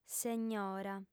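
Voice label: "segnora", Sardinian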